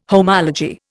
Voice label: "homology", Indian

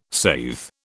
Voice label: "saithe", British

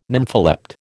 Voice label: "nympholept", American

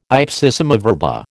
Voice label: "ipsissima verba", American

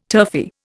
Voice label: "turfy", Indian

